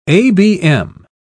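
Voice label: "abm", British English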